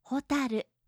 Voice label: "hotaru", japanische maedchennamen

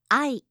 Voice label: "ai", japanische maedchennamen

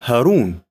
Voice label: "harun", arabische jungennamen

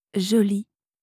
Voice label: "jolie", franzoesische maedchennamen

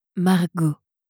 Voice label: "margaux", franzoesische maedchennamen

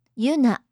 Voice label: "yuna", japanische maedchennamen

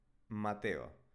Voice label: spanische jungennamen mateo

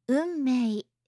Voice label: "unmei", japanische

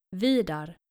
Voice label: "vidar", skandinavische jungennamen

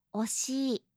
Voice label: "oshii", japanische

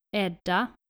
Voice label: "edda", nordische maedchennamen

